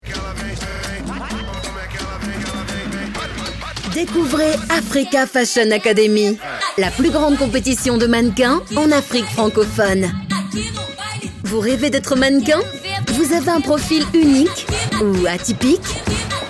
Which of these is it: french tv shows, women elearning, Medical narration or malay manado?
french tv shows